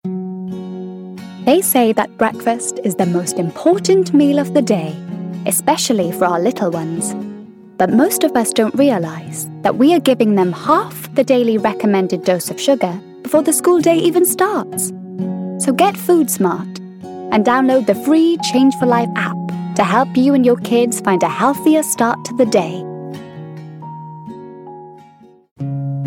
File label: Commercial Compilation